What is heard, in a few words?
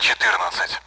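Speech Sound Russian Common AWACS Numbers; DCS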